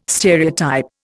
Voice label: "stereotype", Indian

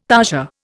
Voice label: "tarsia", Indian

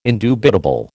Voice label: "indubitable", American

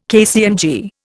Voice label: "KCMG", Indian

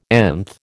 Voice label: "nth", American

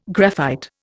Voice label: "graphite", Indian

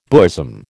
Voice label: "bosom", American

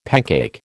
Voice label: "pancake", American

